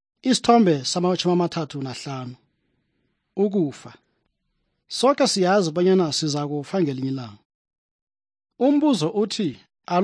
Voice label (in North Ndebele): good news ndebele southern, drama